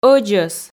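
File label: "ӧдзӧс", Komi, female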